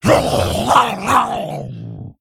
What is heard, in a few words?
Male Ghoul Attack